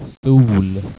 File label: "stol", Swedish